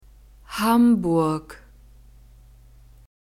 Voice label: "hamburg", German; alphabet